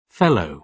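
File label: "fellow", British English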